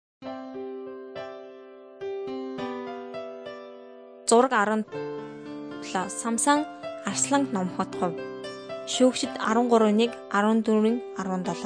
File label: mongolian